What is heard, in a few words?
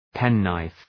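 "penknife", English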